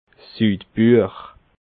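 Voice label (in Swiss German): "sudbuech", Alsatian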